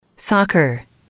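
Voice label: "soccer", English, female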